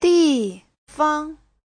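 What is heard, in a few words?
"dìfang", Mandarin Chinese; 地方; (danh từ) chỗ, nơi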